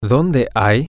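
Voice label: where Is A, Spanish, male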